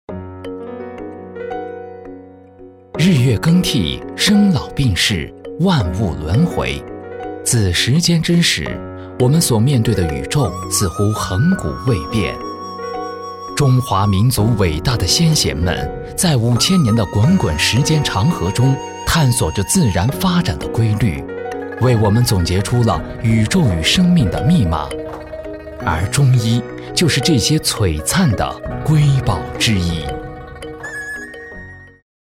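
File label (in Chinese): 解说中医大气浑厚; 鼎尚智惠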